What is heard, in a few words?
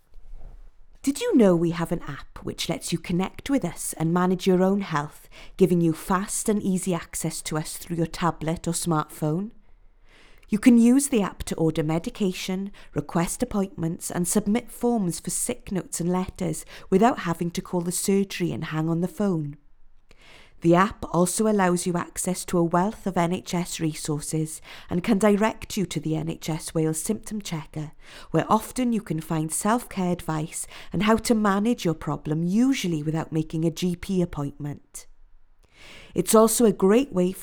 Telephone Message